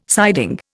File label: "siding", Indian